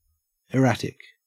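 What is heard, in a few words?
"erratic", Australian English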